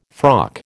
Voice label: "frock", American